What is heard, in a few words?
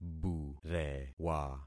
"burewa", concat syllables; Affixing experiment